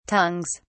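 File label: "tongues", British English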